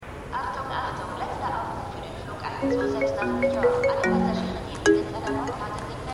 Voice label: Flughafen Durchsage; The Good Doctor

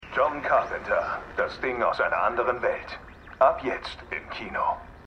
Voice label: Trailersprecher

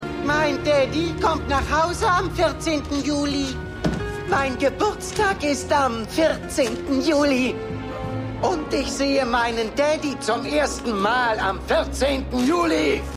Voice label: Verstellte Stimme, Stimmproben; Cyrus Grissom; Con Air

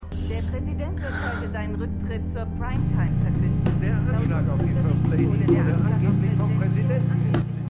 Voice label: Nachrichtensprecherin, Stimmproben

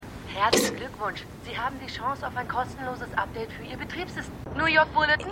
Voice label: Telefonstimme; Jessica Jones

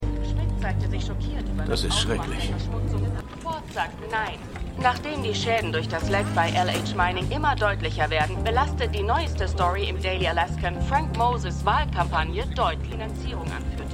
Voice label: Nachrichtensprecherin; AlaskaDaily

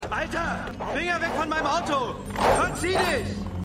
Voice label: Wuetender Mann; Prodigal Son

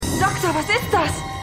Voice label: Schwester, Stimmproben; X Factor

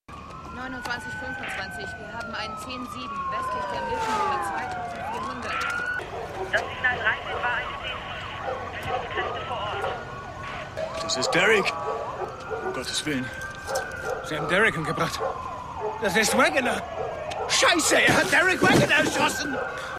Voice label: Polizistin Funk Polizist; The Wire

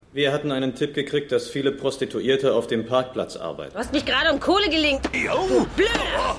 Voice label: Detective Prostituierte, Stimmproben; Seinfeld

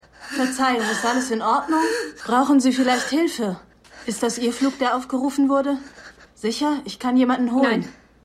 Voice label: Frau Damentoilette

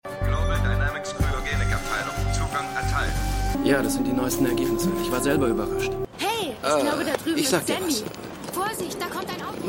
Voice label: Durchsage Mann Schriftzug Frau Frau Auto; Stimmproben; Eureka

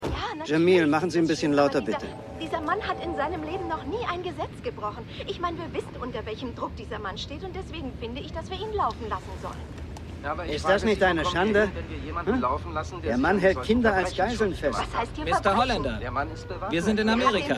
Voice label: Interviewte Frau, Radiomoderator; Mad City

